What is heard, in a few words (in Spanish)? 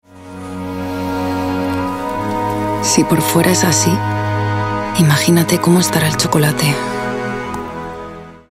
Seductora ES